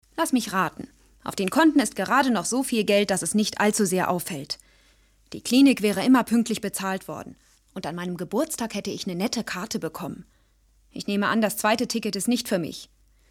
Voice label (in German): synchron